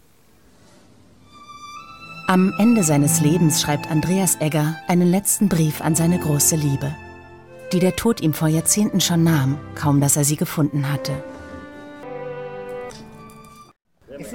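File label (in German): Dokumentation Kommentar; ttt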